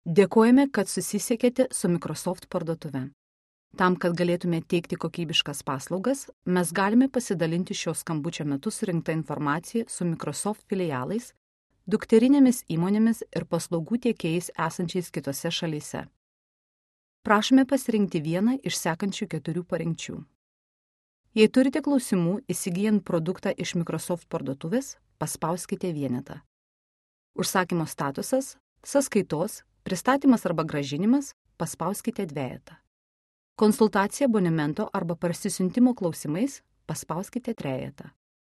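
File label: Lithuanian, female, corporate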